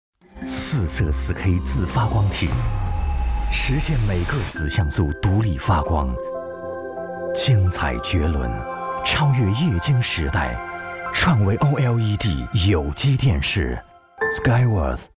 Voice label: 男 广告, 国语男声配音; 创维OLED有机电视TVC

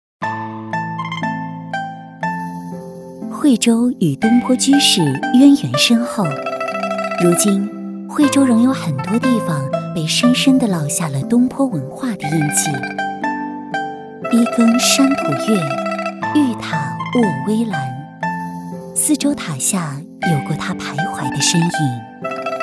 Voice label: 女 宣传片 古韵典雅; 东坡文化

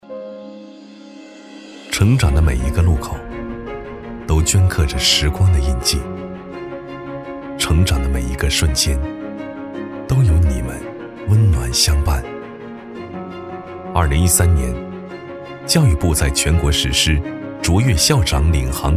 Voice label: 男 自然讲述 男声样音; 遇见美好-赋能成长

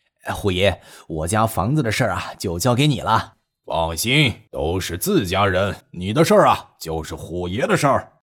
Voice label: 情景再现角色; 温暖磁性的声音

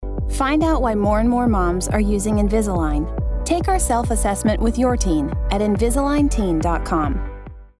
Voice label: 外籍英女 广告; 隐形矫治器

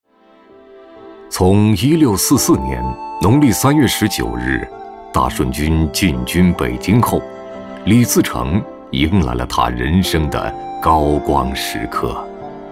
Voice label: 男 纪录片 清朝历史

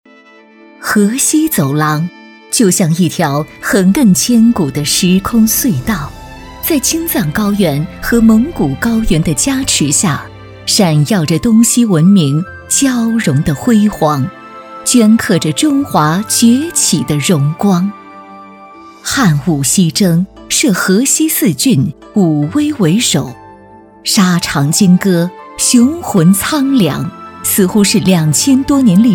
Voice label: 女 专题; 河西走廊

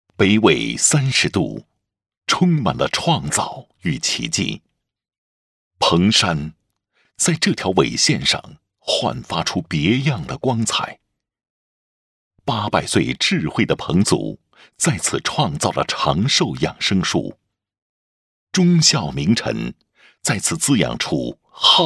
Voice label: 宣传片; 彭山新城

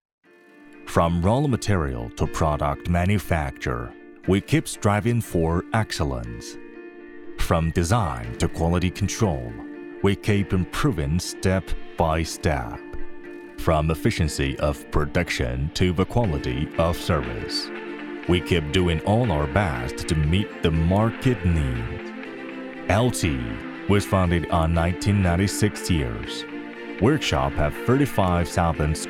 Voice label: 男 英文 企业宣传 铁路工业企业宣传

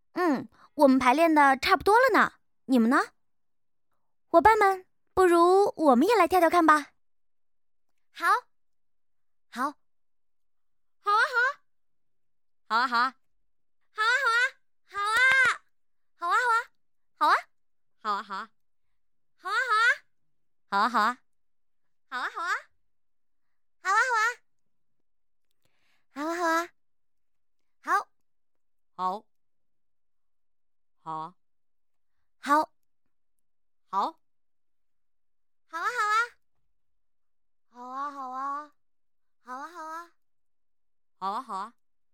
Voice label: 女 微电影角色 对话

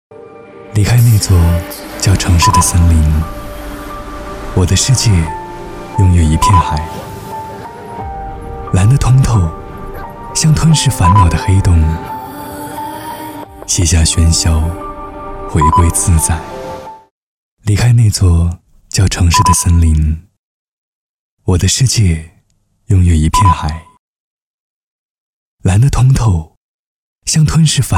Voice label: 男, 走心, 温暖; 王家卫

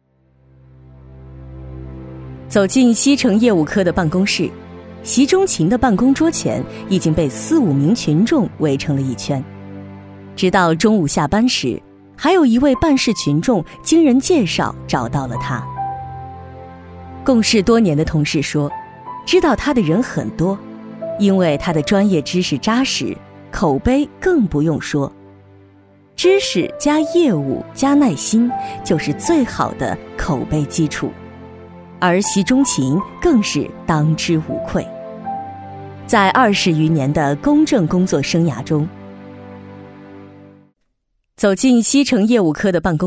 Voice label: 女 专题 人物 司法行业

